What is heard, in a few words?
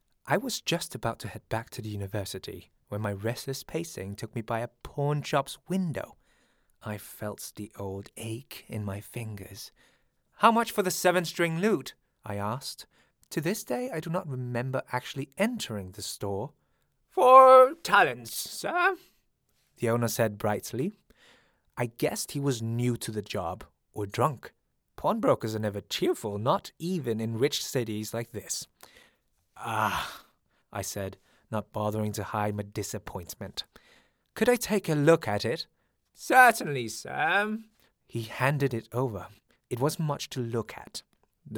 male english with slight danish accent narration